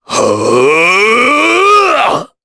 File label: Casting, jp; Crow; kingsraid